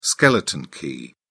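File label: "skeleton key", British English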